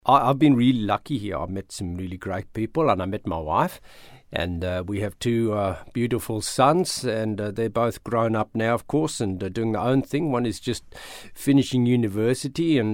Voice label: South African